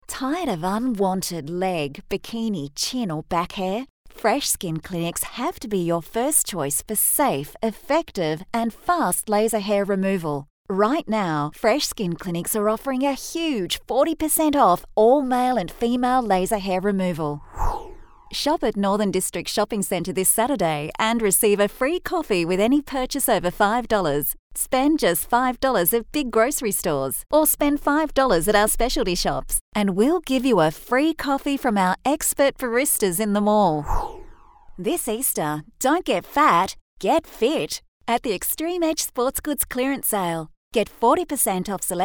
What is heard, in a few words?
Retail Friendly